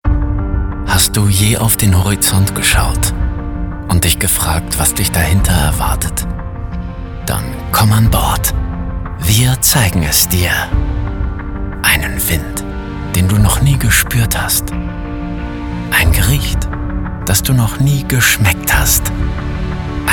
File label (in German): werbung tourismus sinnlich; Muttersprache Deutsch